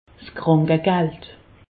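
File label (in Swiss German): "krankegald", Alsace, Haut Rhin; indemnités journalières; medecine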